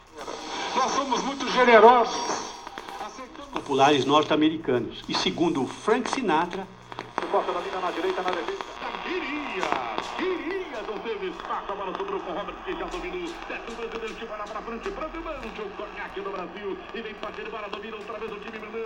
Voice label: Radio, Fieldrecordings Brasilien